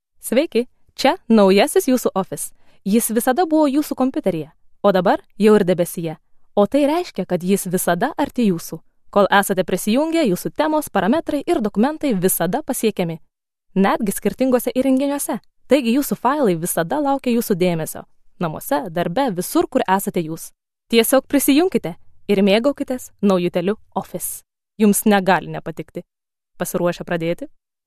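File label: Lithuanian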